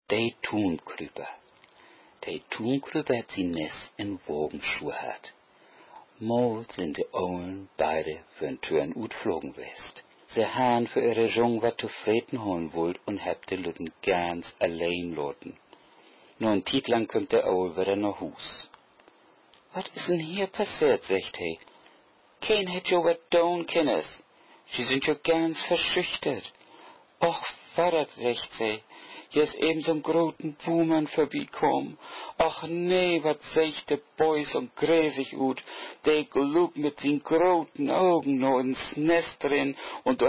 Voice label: hamborgsch